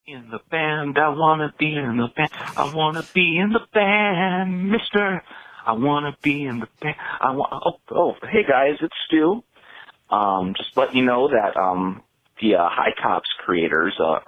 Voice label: voicemail message

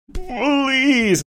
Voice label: please funny

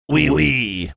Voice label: saying oui oui french

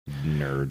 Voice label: quietly saying "nerd"

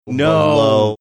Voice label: drawn out no

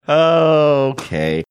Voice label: drawn out okay

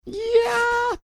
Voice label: quietly saying yeah

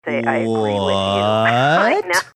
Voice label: drawn out "what"

